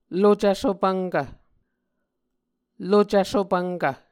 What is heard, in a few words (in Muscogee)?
"lucv sopvnkv", Muscogee